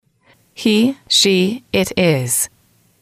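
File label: "he she it is", English, female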